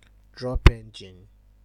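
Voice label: "drop engine", Nigerian Pidgin, male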